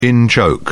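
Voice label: "in-joke", British English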